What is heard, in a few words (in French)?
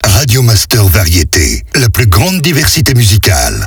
Medium Accap, Voix off; Plus grande diversitée; Radio Master Variétés